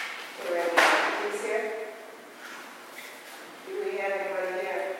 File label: male voice, schoolhouse; Perrinsville school house; Westland MI